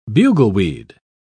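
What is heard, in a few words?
"bugleweed", British English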